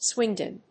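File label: "swindon", English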